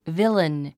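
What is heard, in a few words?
"villain", English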